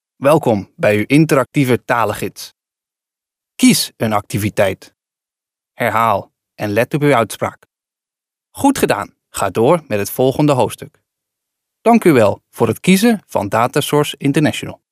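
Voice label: Dutch male corporate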